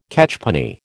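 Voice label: "catchpenny", American English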